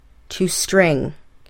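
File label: "to string", English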